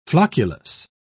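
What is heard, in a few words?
"flocculus", British English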